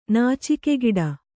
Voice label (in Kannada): "ನಾಚಿಕೆಗೇಡಿ", Kannada